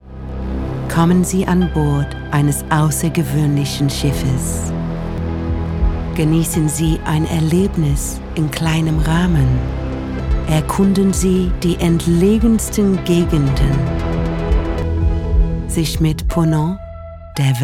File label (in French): ALLEMAND; PONANT